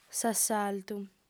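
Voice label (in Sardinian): "s'assaltu", female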